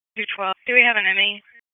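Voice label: Raleigh Police North District Dispatch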